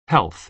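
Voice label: "health", American English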